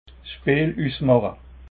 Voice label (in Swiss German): "speel", alsace